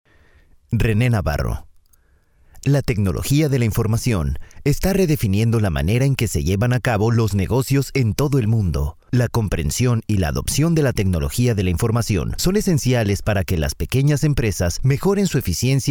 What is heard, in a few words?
Spanish